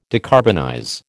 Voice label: "decarbonize", American

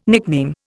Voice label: "nickname", Indian

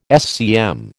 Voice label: "SCM", American

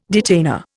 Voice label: "detainer", Indian

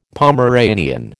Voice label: "Pomeranian", American

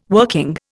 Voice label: "working", Indian

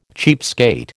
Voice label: "cheapskate", American

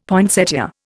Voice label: "poinsettia", Indian; Dictionary

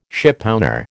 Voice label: "shipowner", American

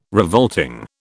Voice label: "revolting", British

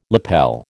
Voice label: "lapel", American

